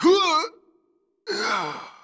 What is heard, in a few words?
Male; baldurs1